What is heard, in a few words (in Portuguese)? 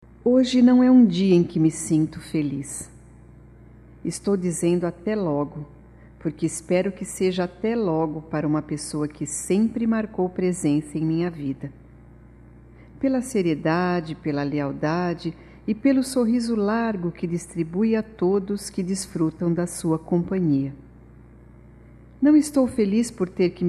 BOA VIAGEM, fem; PARA QUEM NÃO VOLTA